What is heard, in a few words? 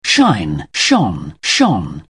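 "shine", English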